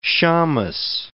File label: "shammes", words